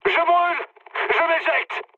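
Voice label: French on fire mood high; War Thunder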